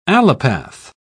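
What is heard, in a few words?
"allopath", British English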